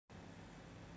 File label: voice message